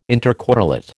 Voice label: "intercorrelate", American